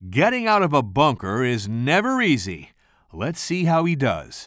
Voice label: commentator